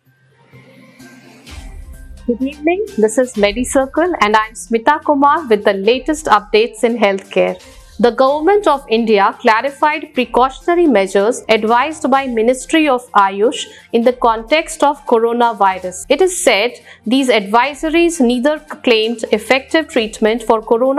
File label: english news